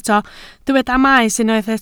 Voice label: "maes", Welsh